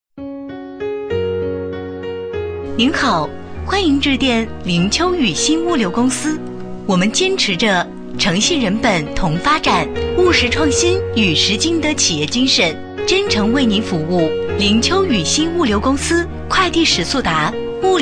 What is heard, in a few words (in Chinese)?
女 彩铃 物流; 宇鑫物流